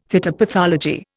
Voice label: "phytopathology", Indian; Dictionary

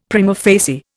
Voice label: "prima facie", Indian; Dictionary

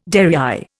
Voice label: "derriÊre", Indian

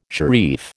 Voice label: "sharif", American

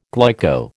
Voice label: "glyco", American

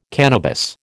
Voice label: "cannabis", American

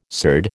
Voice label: "surd", American

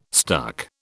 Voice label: "stuck", British

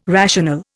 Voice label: "rational", Indian

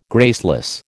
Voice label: "graceless", American

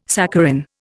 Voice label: "saccharin", Indian

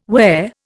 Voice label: "whare", Indian

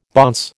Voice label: "bonce", American

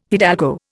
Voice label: "hidalgo", Indian

